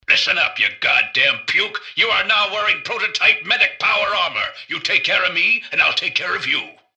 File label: generic robot suit start; npc; mrgutsy